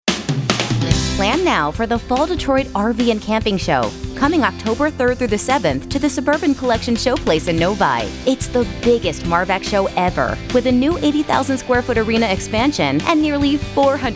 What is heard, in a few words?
radio, female; MARVAC Fall Detroit RV Show